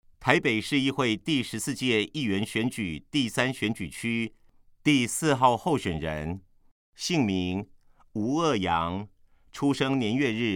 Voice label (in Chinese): 國語; 臺北市; 有聲公報; 市議員; 臺北市議會第14屆議員第3選舉區 (松山、信義區)候選人介紹